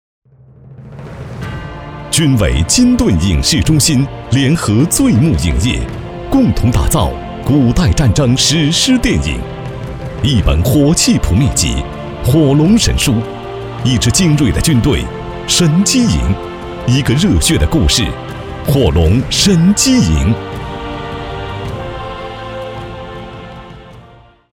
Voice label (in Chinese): 男 广告 试音; 神机营